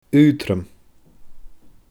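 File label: "aotrom", Scottish Gaelic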